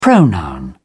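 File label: "pronoun", British English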